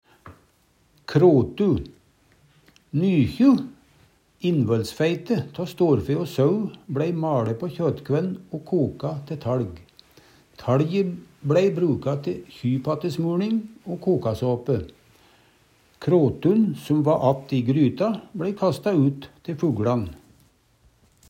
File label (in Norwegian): krotu, Numedalsmål